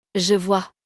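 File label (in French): "je vois", French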